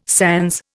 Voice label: "sans", Indian

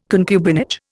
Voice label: "concubinage", Indian

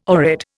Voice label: "oread", Indian

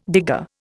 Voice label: "digger", Indian

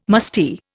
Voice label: "musty", Indian

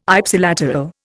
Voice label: "Ipsilateral", Indian

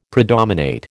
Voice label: "predominate", American

